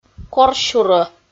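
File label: "корсюрӧ" Komi, female; audio dict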